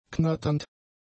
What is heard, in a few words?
"knatternd", German; adjectives